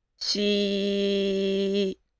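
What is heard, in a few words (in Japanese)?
"し", Japanese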